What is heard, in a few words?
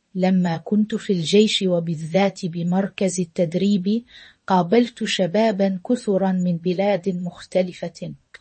generated voice; gradio